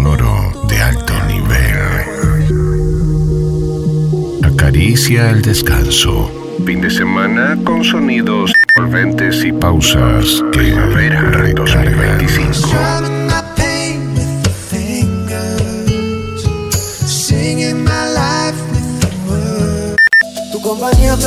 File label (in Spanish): Arte Chill Primavera; A dos voces y acento neutro!; primavera 2025